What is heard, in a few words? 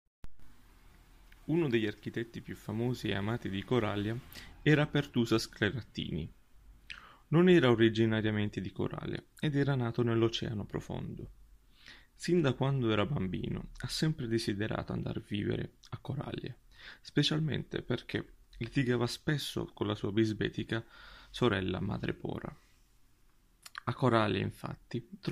Italian; wissenschaftliche kurzgeschichten